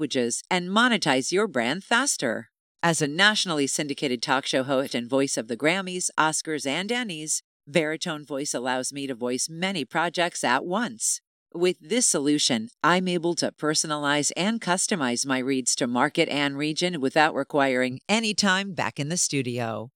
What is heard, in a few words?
synthetic testimonial sample